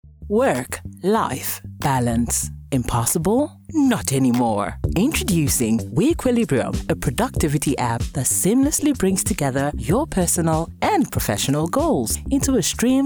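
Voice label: English, African, female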